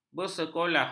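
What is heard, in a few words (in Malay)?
"bersekolah", Malay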